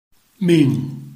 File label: "ming4", Chinese